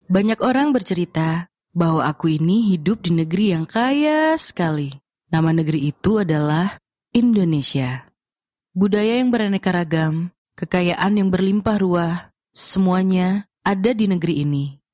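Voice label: Indonesian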